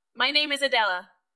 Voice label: VoiceActing es